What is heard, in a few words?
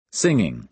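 "singing", American English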